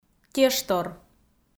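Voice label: "тештор", Komi, female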